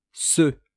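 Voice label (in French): "ceux", French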